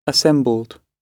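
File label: "assembled", British English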